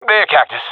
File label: i killed enemy, professional; Counter Strike Model Pack; CS:GO